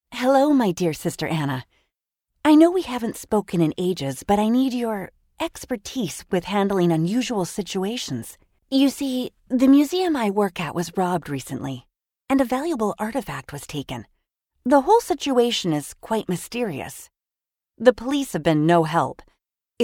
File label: Video Game Character